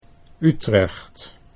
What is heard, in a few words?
"Utrecht", Dutch